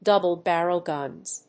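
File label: "double barrel guns", English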